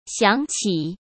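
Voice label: "想起", Mandarin Chinese; chinese flashcards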